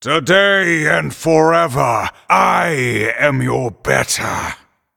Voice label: Night Elf Emote Threaten

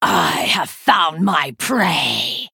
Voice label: Female Night Elf Start

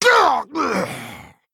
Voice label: Male Human Death

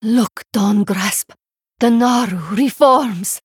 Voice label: Female Draenei Boss Reveal

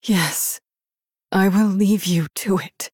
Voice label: Female Human

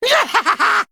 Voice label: Male Mummy (Human) Attack

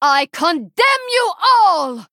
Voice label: Female Draenei; CONDEMN

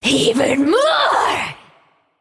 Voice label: Female Naga Attack

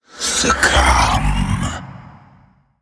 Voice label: Male Spirit Attack

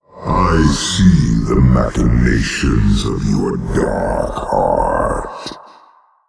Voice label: Male Old God START

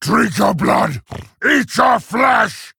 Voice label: Male Dire Fel Orc Play

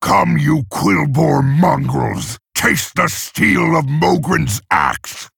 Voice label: Male Orc; Mogrin; Rexxar Mission4 Intro

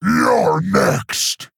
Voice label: Male Quilboar

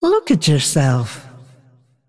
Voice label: Female Dragon TRIGGER POLYMORPH

